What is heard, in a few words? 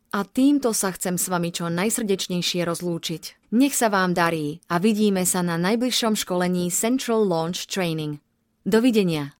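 Slovak, Corporate; GoLocalise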